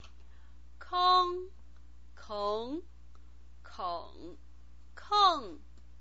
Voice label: "keng", Chinese pinyin